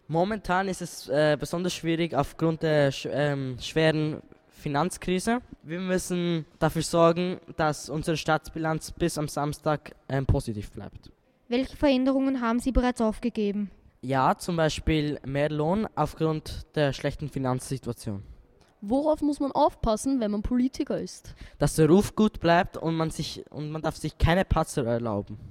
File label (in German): Kinder Buergermeister interview; Rathausreport